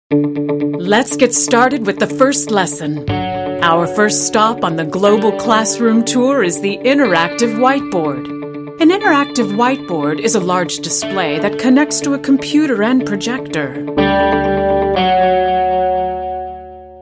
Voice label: Elearning